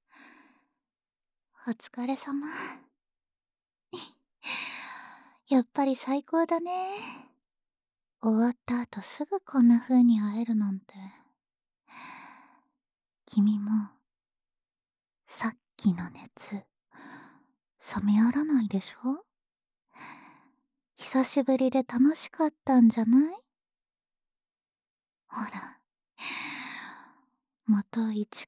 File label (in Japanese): フリートーク; 夢依役